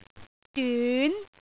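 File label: "dyun2", Cantonese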